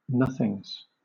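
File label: "nothings", British English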